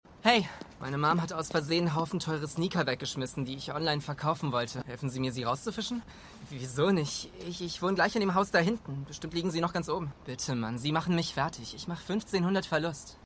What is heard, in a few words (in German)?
Jugendlicher, Stimmproben; Shameless